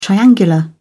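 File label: "triangular", British English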